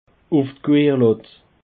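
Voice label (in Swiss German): "querlatt", Bas Rhin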